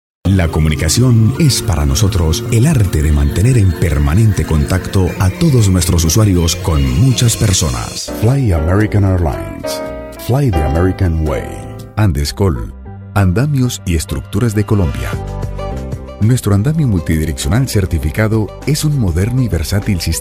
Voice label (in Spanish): Corporativo, voice talent